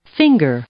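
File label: "finger", English; noun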